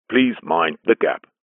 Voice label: train underground london please mind the gap male english accent pa